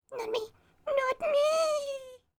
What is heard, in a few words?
Voices Female Beggings